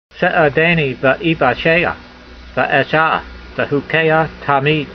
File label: voice, hebrew language; Psalm 119:117; se'a'daynee ve'ee'vashay'ah, ve'esh'ah vehukeyha tameed